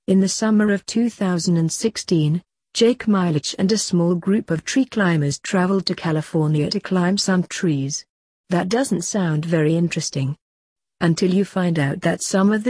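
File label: British; tallest trees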